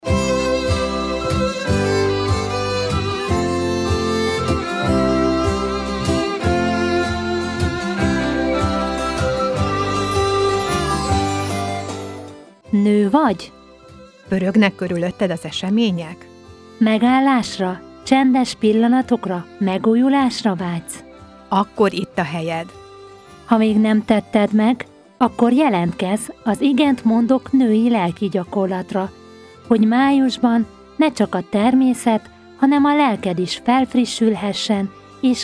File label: Radiospot; Igent mondok; Musoros reklam szpotok; Szatmar